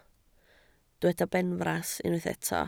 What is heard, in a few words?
"benfras", Welsh